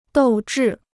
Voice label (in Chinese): "斗志", Chinese